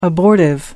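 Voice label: "abortive", English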